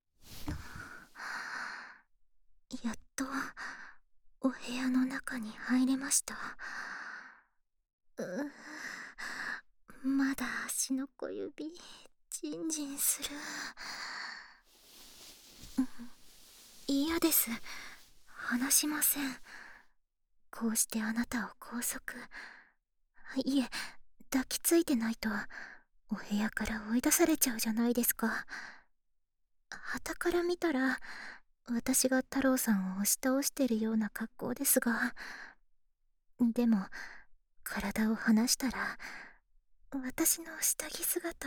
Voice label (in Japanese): 耳元匂い嗅ぎ・囁き, ASMR; 耳ふ～自己紹介; 新人引きこもり相談員アンジュはへこたれない。